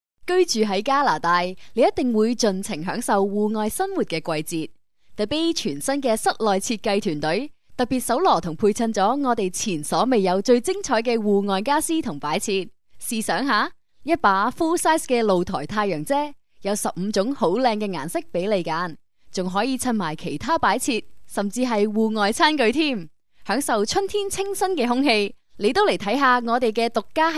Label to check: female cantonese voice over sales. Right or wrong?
Right